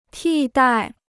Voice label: "替代", Chinese

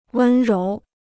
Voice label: "温柔", Chinese

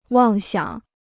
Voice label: "妄想", Chinese

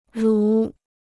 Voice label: "如", Chinese

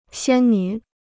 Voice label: "仙女", Chinese

